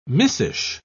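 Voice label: "missish", British English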